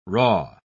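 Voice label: "raw", English word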